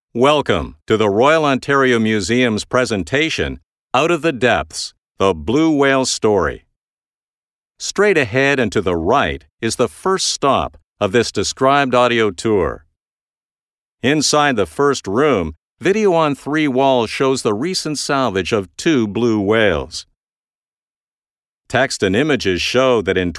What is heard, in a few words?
english canadian documentaries